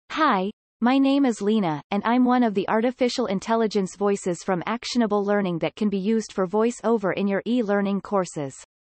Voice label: Female Voice-Over; animation